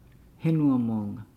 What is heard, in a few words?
"land mountains", Nukuoro